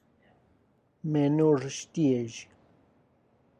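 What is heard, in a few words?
garlic bunch, Tlacochahuaya Zapotec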